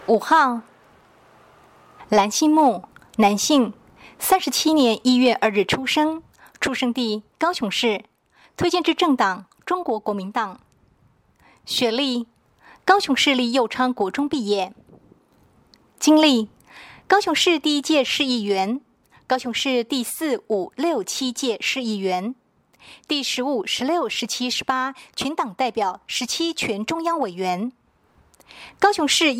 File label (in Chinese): 國語; 第四選區; 藍星木